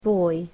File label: "boy", English